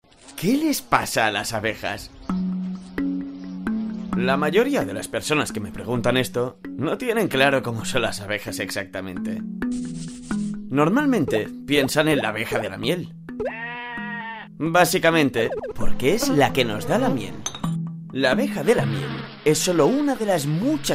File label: male spanish bees documentary; ideasonora